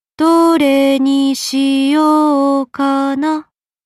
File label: voice lines english; fate grand order; skadi